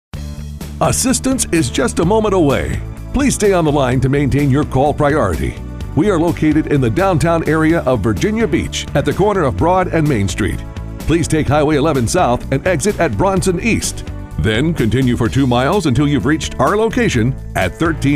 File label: Phone